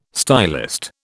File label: "stylist", British